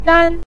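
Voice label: "ran", Mandarin Chinese, neutral tone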